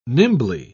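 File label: "nimbly", British English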